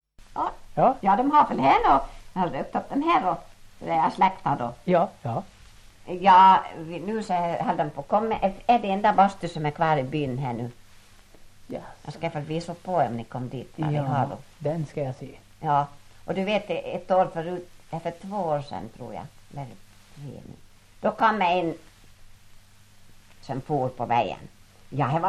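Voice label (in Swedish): Ål Dalarna, dialekter; Folkminnen; Svenska sagor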